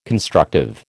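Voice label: "constructive", American English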